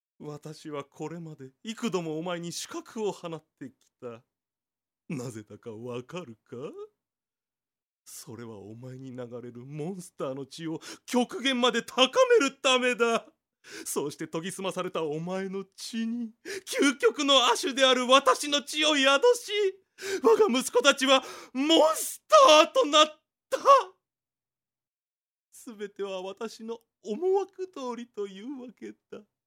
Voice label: male voice

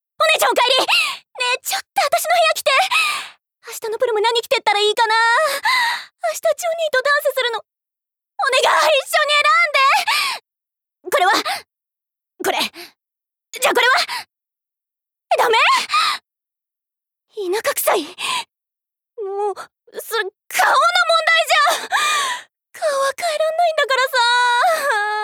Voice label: female voice; セリフ

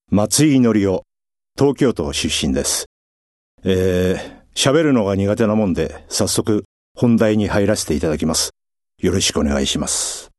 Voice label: freetalk, male voice

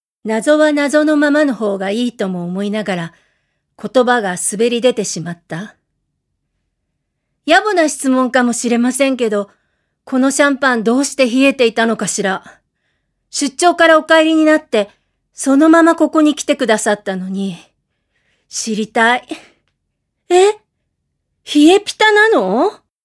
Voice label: female voice

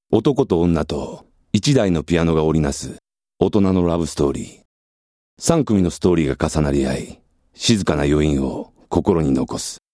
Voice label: male voice

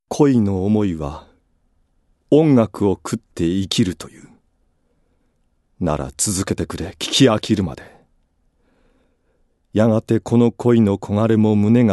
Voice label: male voice

